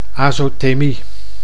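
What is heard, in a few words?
"azotemie", Dutch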